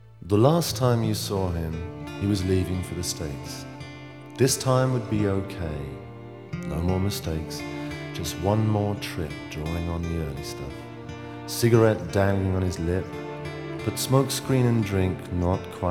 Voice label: narration

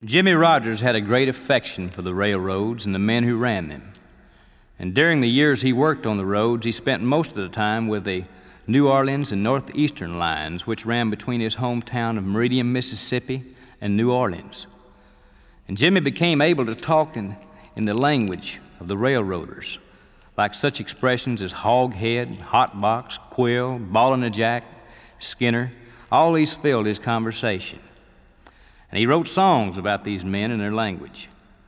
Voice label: narration

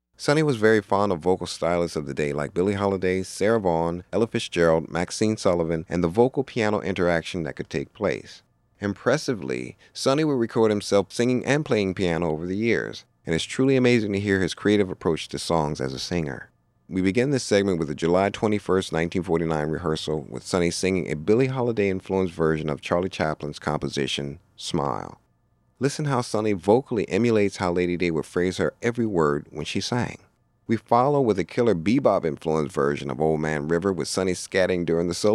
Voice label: narration

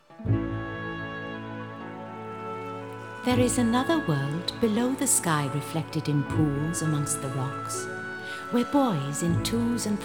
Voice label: narration

